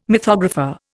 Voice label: "mythographer", Indian

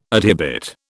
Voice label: "adhibit", British

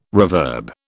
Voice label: "reverb", British

